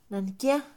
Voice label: "tampoco", Mixe, Mujer; INALI